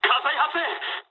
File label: on fire mood high, speech jp; War Thunder